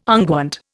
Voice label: "unguent", Indian

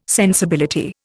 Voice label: "sensibility", Indian

